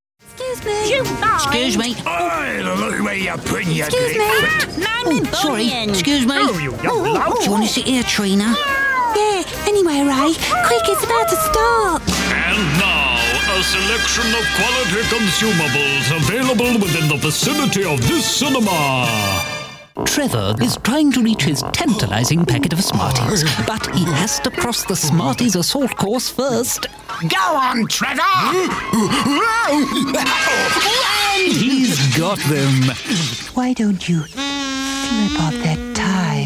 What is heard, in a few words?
cartoon